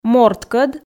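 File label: "морткӧд", Komi, female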